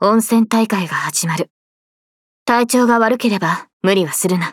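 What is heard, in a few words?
start, Japanese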